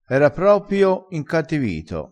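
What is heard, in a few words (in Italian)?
"incattivito", crespinese dialettale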